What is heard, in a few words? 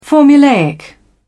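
"formulaic", English, British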